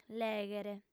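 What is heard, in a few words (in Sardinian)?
"lèghere", Sardinian, female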